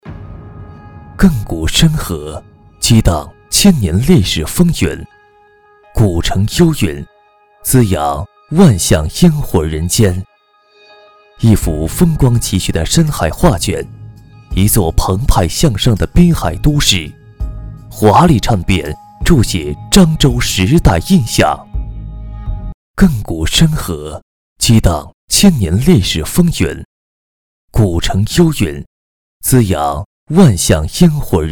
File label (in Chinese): 宣传类型 深沉大气